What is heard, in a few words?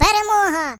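"Victory", British; hedgewars; Default uk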